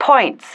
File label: "points", female